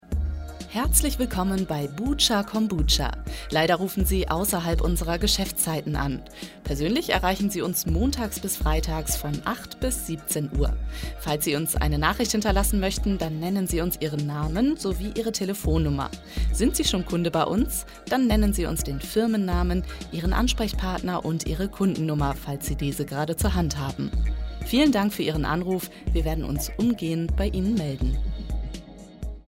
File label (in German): Anrufbeantworter; LOFT VOICES